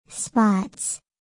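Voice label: "spots", English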